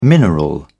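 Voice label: "mineral", British English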